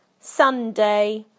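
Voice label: "Sunday", British English; PictureDictionary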